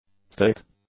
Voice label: "thick", English; adjectives

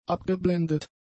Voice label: "abgeblendet", German; adjectives

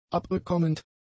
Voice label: "abbekommend", German; adjectives

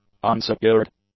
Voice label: "unsecured", english; adjectives

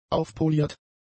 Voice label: "aufpoliert", German; adjectives

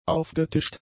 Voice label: "aufgetischt", German; adjectives

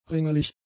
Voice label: "ringelig", German; adjectives

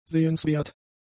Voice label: "sehenswert", German; adjectives

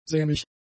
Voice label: "sämig", German; adjectives

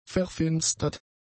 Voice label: "verfinstert", German; adjectives

